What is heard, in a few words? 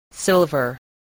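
"silver", spoken word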